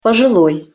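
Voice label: "poziloj", Russian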